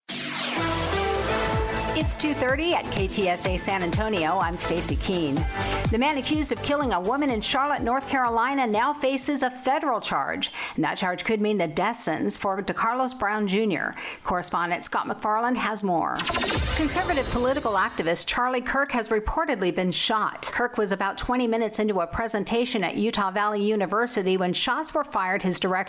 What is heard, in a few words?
News